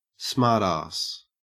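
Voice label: "smartass", Australian English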